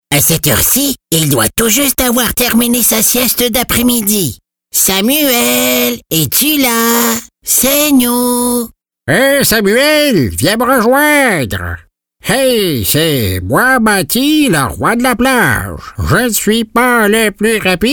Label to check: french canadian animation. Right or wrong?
Right